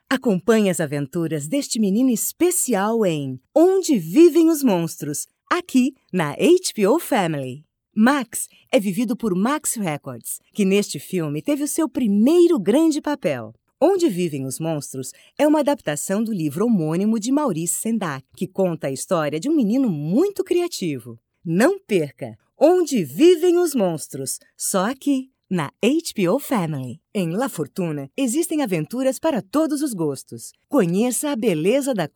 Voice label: Promos; HBOFamily